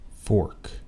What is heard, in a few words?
"fork", anglais